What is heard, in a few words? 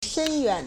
"shen1yuan3", Chinese